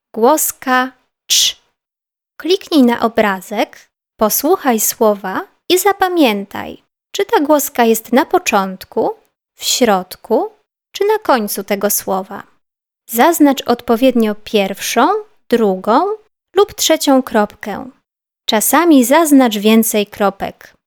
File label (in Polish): Gloska CZ